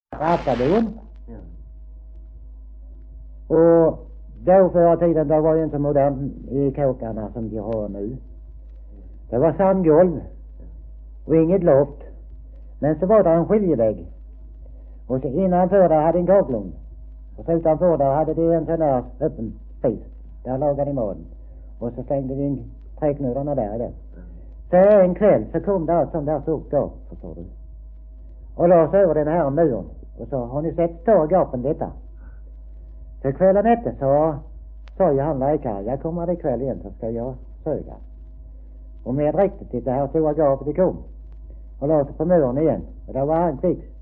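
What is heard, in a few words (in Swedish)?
Degeberga Skåne, svenska sagor dialekter; Folkminnen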